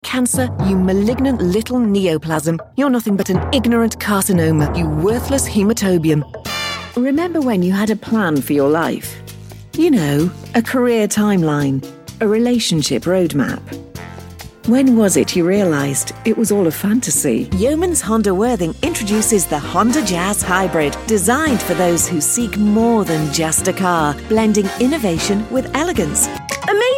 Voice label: commercial